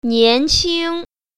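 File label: "nian2qing1", Mandarin Chinese Tone Pair Drills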